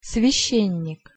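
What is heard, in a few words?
"svjascennik", Russian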